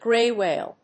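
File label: "grey whale", English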